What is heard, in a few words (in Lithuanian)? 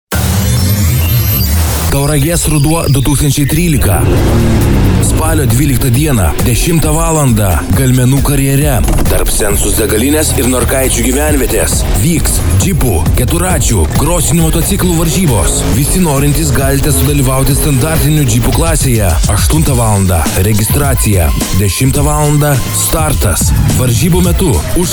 reklama; Norkaiciai